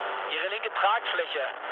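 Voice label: German left wing mood low, speech; War Thunder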